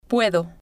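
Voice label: "puedo", Spanish, female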